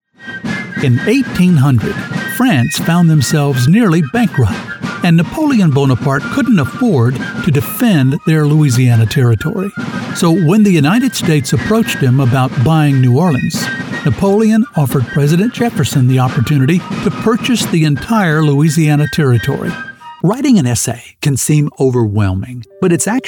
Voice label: e-learning